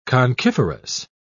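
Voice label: "conchiferous", British English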